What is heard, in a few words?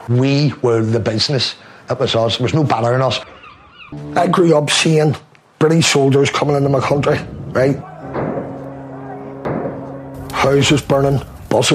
Belfast; IRA